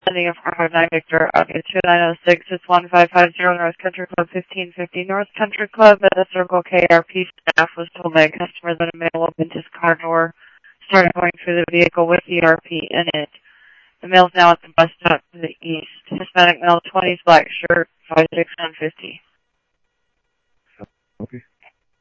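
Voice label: Mesa police department, scanner audio trunk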